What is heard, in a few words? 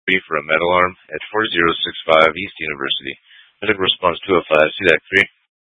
FD Dpts VHF 156.240 Patch